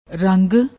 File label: "rang", Punjabi